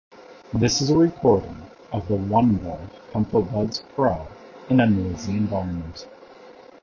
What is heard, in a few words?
Noisy Environment; 1MORE ComfoBuds Pro